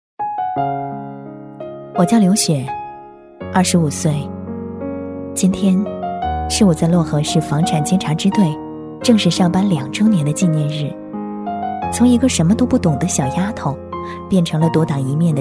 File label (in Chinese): 女 微电影 内心独白 娓娓讲述; 低沉走心